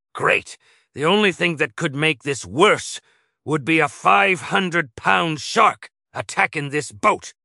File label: upset; fertig classic games; schooner